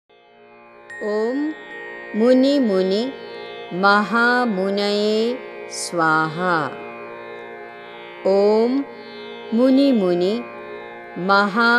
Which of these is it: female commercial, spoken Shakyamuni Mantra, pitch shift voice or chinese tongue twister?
spoken Shakyamuni Mantra